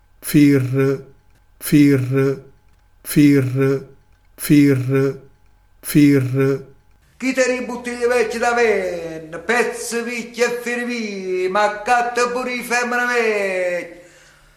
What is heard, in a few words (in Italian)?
"firre", dialetto di foggia